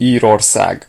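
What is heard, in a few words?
"ireland", Hungarian; gcompris; geography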